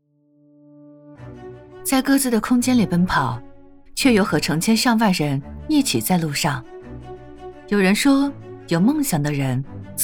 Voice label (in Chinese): 旁白质感女生有态度